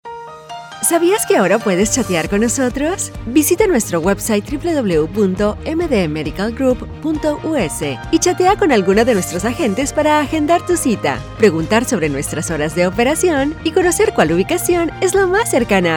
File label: Radio Or TV spot